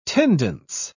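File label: "tendance", British English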